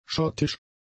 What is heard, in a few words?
"schottisch", German; adjectives